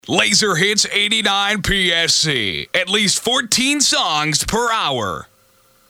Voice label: SWEEPER 14 Songs Per Hour